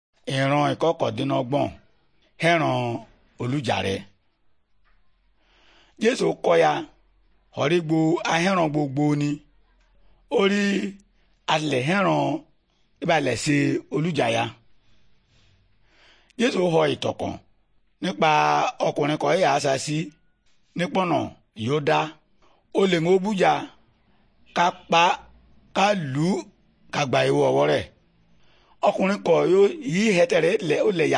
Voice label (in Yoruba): good news yoruba, bible